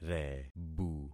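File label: "rebu", concatenated syllables; Affixing experiment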